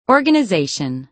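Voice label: "organization", American English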